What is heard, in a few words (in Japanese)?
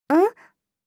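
"ん?" Japanese, システムボイス; あ～にき!いくら出す?; Windows向けシステムボイス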